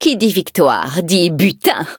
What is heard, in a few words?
victory, fr; cookie run